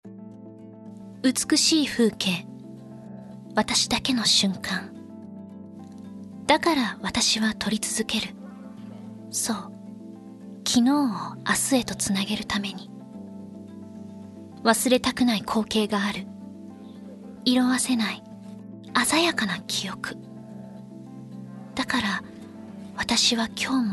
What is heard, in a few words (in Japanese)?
Nar Otona Jyosei Fu